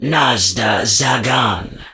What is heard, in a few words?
alien taunt confirm